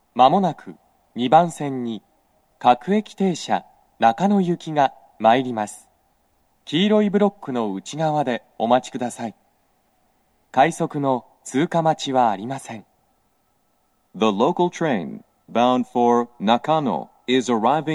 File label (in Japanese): 接近放送, 男声, 放送は「各駅停車 中野行き」です。; TOA円型での収録です。; 2番線 中野・三鷹方面